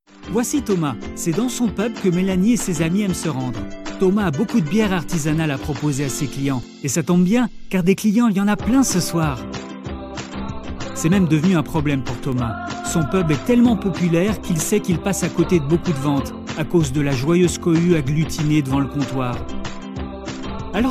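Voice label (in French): FR Explainer Ton decontracte, French; Xtra order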